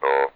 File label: OO, votrax speech; vectrex